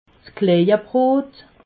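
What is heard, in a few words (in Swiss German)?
"klejebrot", Alsatian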